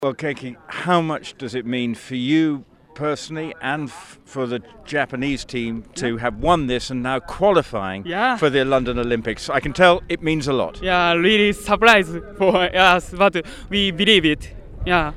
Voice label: english